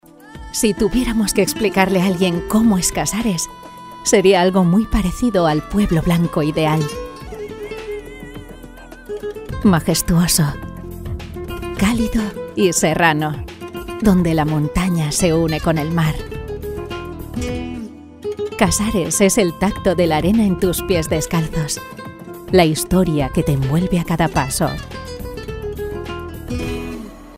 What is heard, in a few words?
spanish promos